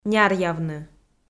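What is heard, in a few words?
"няръявны", Komi, female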